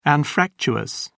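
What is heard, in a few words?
"anfractuous", British English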